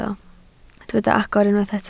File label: "agor", Welsh